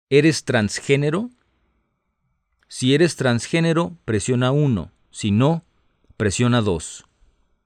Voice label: Spanish, hotline